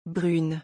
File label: "brune", French